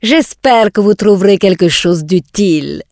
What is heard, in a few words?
draenei, female; quelque chose utile; PhoenixCraft-1.16.5; PhoenixNPCPack; minecraft